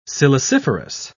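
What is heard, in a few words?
"siliciferous", British English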